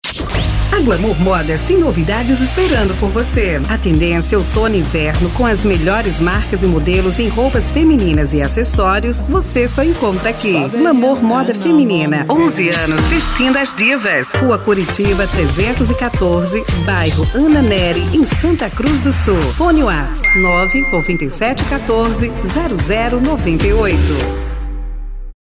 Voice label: LOCUTORA, comerciais; MODAS; GLAMOUR MODAS

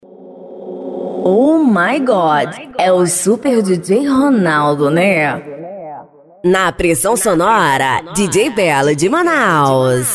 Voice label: Vinhetas sem efeitos de fundo; São vinhetas sem efeitos sonoros de fundo, voz tratada, equalização e limpeza de ruídos; VINHETA OFF ♀

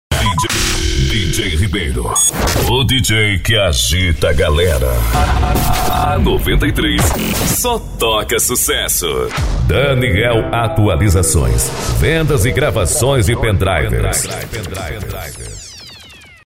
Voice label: vinhetas

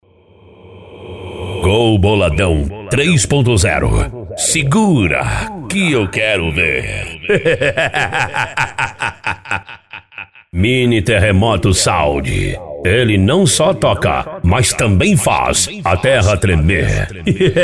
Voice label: VINHETA OFF ♂; São vinhetas sem efeitos sonoros de fundo, voz tratada, equalização e limpeza de ruídos

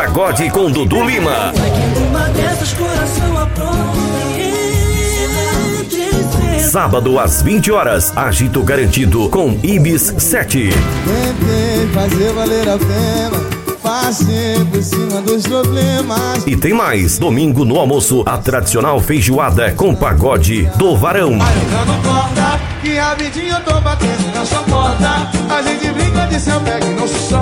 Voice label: locutor fim de semana

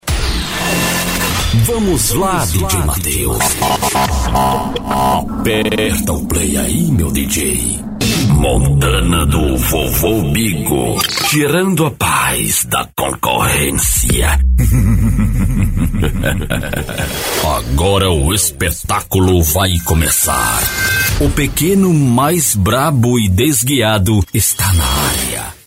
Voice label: vinhetas

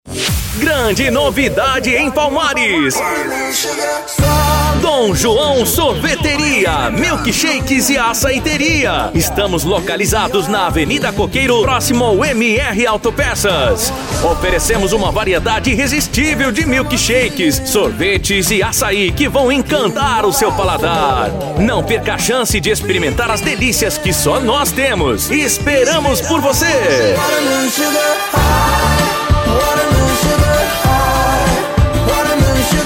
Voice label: LOCUTOR, DEMO COMERCIAL ♂; Sorveteira Milk Shakes e Acaiteria; Dom Joao

